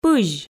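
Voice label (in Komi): "пызь", Komi, female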